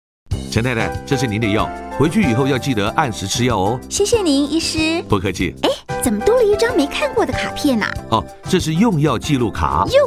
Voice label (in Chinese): 用藥紀錄卡 國語版